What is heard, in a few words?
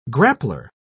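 "grappler", British English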